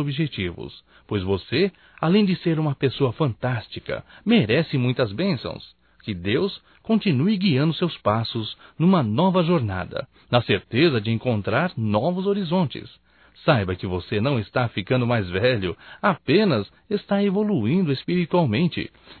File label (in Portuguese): ANIV VIZINHO M, Telemensagens; Telemensagens 2017; Aniversarios